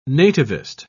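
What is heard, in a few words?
"nativist", British English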